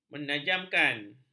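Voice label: "menajamkan", Malay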